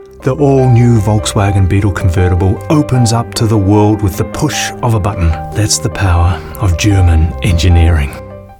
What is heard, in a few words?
Voice Actor; BigMouthVoices